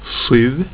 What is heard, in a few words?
sju, swedish; getal